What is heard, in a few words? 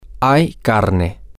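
male, spanish; there Is Meat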